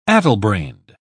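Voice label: "addlebrained", English, British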